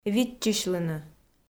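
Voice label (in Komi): "виччысьлыны", Komi, female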